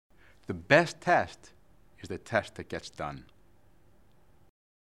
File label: English; Colorectal Cancer